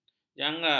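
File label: "jangar", Malay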